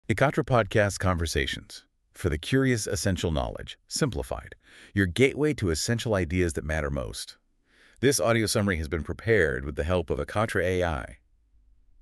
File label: english; bird by bird